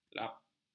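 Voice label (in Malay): "lapp", Malay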